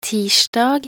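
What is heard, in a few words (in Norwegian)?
"tirsdag", Norwegian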